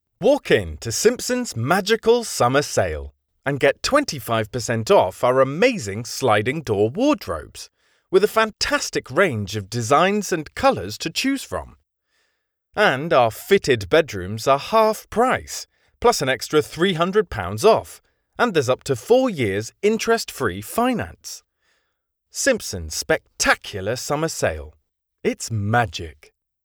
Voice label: Commercial Audition; Simpsons